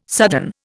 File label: "sudden", Indian